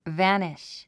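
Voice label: "vanish", English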